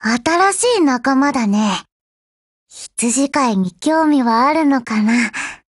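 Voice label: BUILD OVER Japanese, Voice; GF Alarm; Falcon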